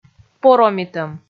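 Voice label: "поромитӧм", Komi, female; kpv audio dict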